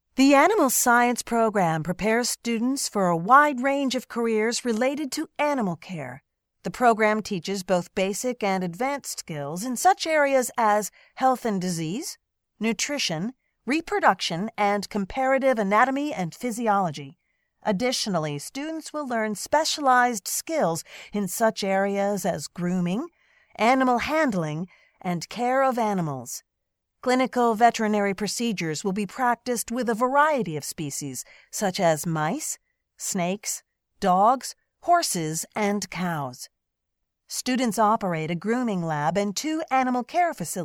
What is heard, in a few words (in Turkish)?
Amerikan Ingilizcesi Kadin, seslendirme